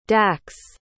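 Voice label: "dax", English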